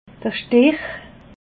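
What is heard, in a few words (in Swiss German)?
"stich", Alsatian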